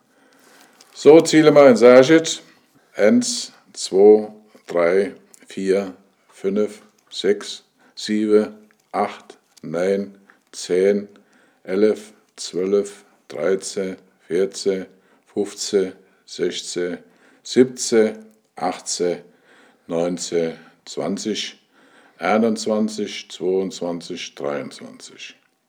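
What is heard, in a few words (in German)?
Zahlen, O-Ton Hunsrück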